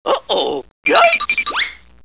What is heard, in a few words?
uh oh